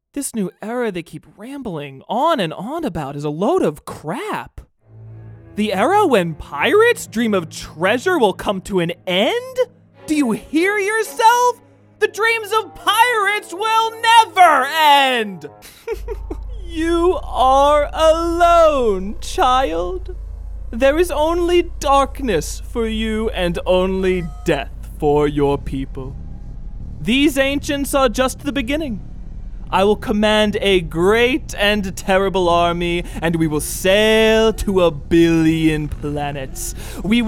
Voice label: Monologue